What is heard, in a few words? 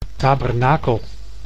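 "tabernakel", Dutch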